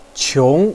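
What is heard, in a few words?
"qiong", Mandarin Chinese, second tone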